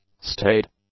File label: "staid", English; adjectives